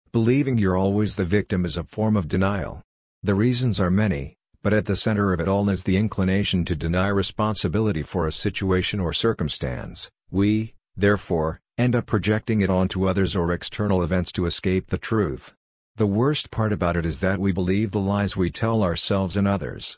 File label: Male